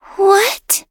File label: level up, en